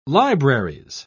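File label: "libraries", British English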